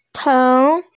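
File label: "ceng2", Mandarin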